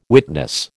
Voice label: "witness", American